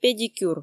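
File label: "педикюр", Komi, female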